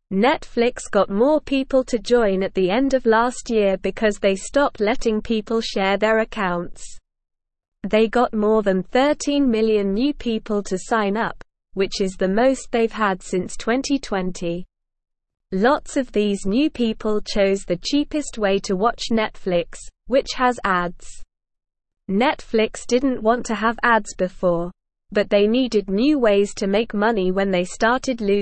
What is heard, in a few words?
English Lower Intermediate SLOW Reading; English Newsroom; Netflix Grows with New Viewers and Ads